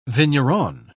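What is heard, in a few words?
"vigneron", British English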